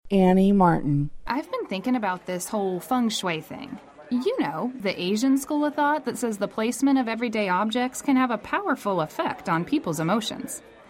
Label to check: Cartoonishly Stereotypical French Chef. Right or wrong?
Wrong